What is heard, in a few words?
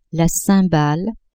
"cymbale", French; instruments